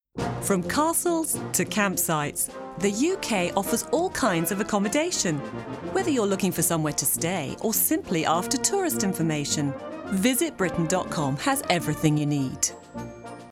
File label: uk tourist, commercials